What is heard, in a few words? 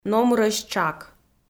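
"номырӧсь тшак", Komi-Zyrian, female; audio dict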